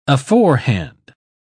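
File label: "aforehand", British English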